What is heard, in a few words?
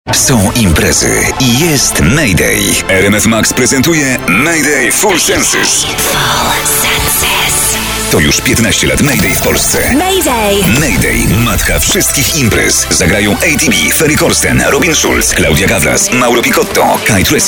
SPOT; MAYDAY